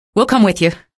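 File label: citizen evac ok, female; vo; npc; source engine; episodic; episode 1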